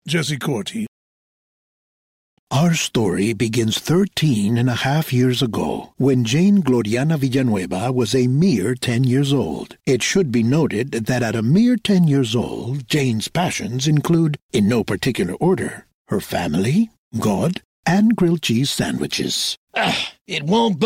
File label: animation; Innovative LA